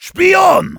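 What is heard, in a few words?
cloaked spy, German; "Spion!"; Heavy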